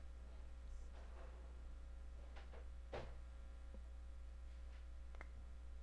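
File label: "hold", spoken word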